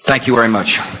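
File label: thank you very much, male voice; expletives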